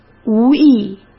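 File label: "无意", Mandarin Chinese; hsk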